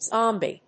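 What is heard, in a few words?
"zombie", English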